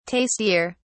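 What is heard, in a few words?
"tastier", British English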